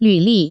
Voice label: "jianli", Taiwan Chinese; job

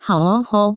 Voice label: hao o ho, Taiwan Chinese modal particles; ei; hou; nie

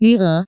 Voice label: "yu e", Taiwan Chinese; bank; atm; credit card

